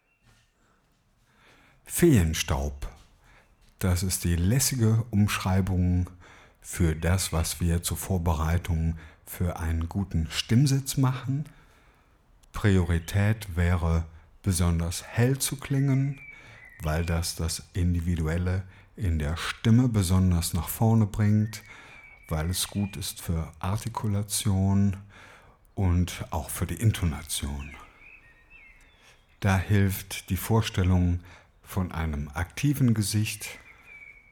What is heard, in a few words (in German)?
Erklärung